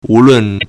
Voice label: "wu2lun4", Chinese